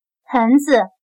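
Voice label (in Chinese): "盆子", Chinese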